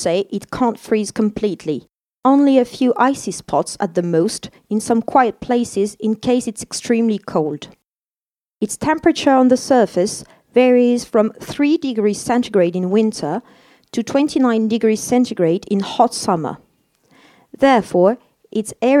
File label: UK CLIMAT, audio guide